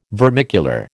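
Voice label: "vermicular", American English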